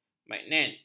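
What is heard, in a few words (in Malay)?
"magnet", Malay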